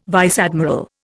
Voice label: "vice admiral", Indian

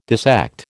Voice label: "dissect", American

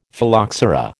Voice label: "Phylloxera", American

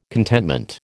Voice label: "contentment", American

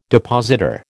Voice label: "depositor", American

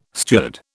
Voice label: "steward", British

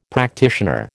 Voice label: "practitioner", American

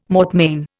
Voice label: "mortmain", Indian

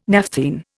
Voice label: "naphthene", Indian

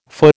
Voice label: "feu", American

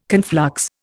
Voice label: "conflux", Indian

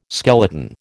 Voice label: "skeleton", American

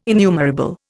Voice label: "innumerable", Indian

